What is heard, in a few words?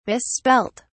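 "misspelt", British English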